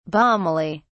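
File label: "balmily", British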